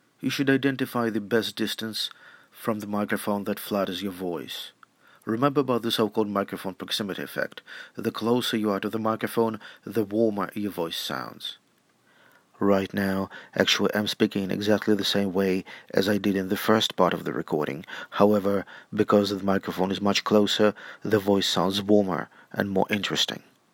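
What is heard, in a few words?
proximity effect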